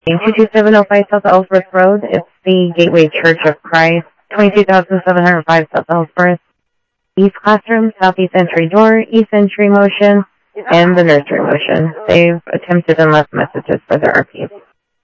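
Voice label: Sheriff East County, scanner audio trunk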